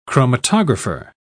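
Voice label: "chromatographer", British English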